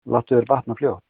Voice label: get well soon, Icelandic; Láttu þér batna fljótt

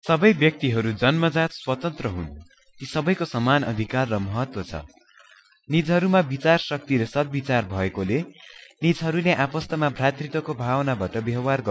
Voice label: udhr ne

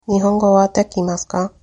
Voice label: do you speak, japanese

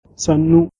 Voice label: hello, hausa

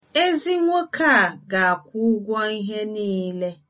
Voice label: pay, igbo

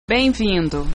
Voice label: welcome, portuguese

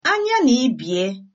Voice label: long time no see, igbo

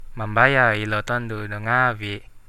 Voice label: pay, Central Dusun

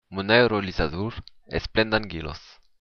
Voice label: hovercraft, occitan

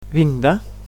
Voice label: "I'm from", Catalan

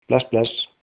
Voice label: goodbye, icelandic

